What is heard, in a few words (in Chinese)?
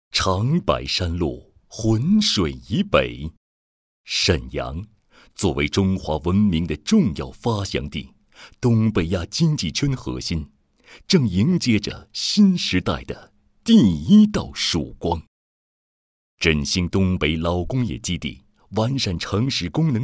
男 专题 抒情 稳重; 沈阳快速路建设投资公司